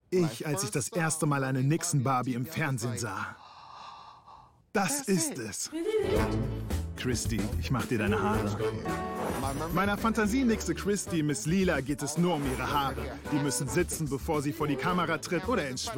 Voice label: Voice Over; Blixunami Merpeople